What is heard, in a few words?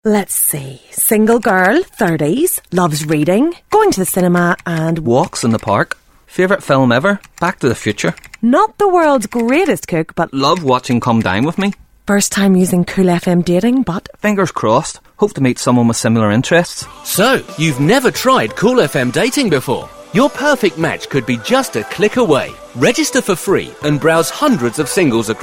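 Irish English, Commercial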